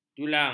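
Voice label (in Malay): "julang", Malay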